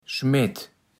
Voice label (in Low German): "Schmett", platt